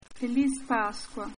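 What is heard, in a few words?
happy easter, Portuguese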